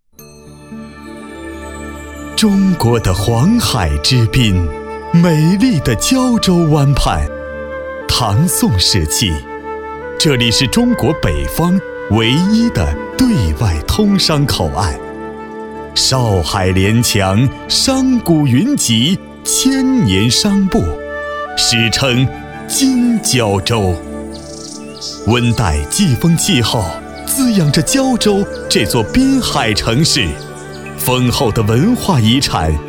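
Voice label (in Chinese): 男国大音; 胶州样音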